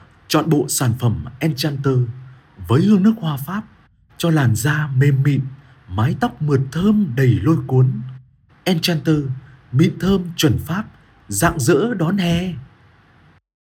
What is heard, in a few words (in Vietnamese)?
voice Nam Mien Bac; Voice-off